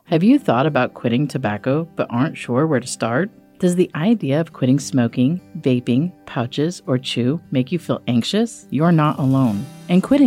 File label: Female; Quitting Tobacco; 45plus; IowaHHS